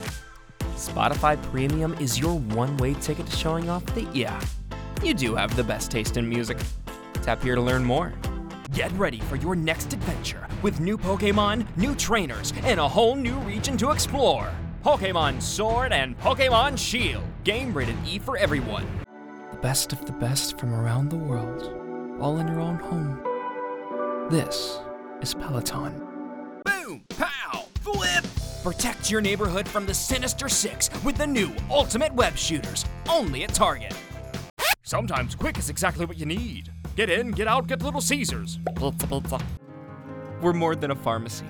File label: VO Commercial Demo